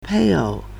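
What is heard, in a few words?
"pèiǒu", Mandarin Chinese; 配偶; partner; mate; spouse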